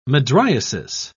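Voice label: "mydriasis", British English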